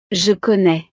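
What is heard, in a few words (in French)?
"je connais", French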